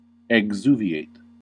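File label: "exuviate", American English; pronunciations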